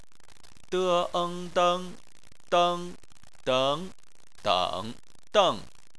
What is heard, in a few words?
"deng", chinese